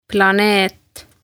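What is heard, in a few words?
"Planeet" Estonian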